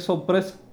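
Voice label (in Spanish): "sorpresa", Cuba, Havanna; Kuba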